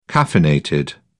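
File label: "caffeinated", English, British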